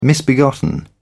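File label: "misbegotten", British English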